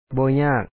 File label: "ບໍ່ຍາກ", Lao